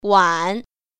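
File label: "wan3", Mandarin Chinese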